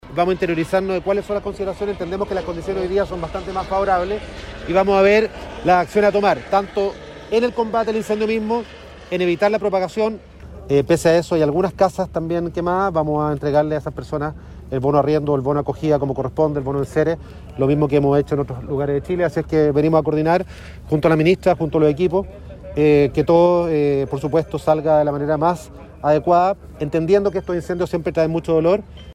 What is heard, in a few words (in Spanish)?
cuña